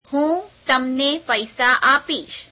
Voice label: gujarati I will give you money; [2nd object pronoun]; હું તમને પૈસા આપીશ; [heum temene peaiseā āpeīśh]